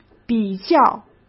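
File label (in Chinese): "比较", Mandarin Chinese; chinese flashcards; hsk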